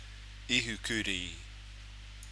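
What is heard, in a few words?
"ihu kuri", Māori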